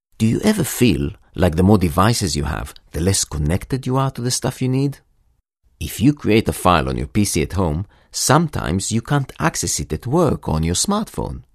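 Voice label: Hebrew, English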